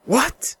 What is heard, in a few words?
Male "What"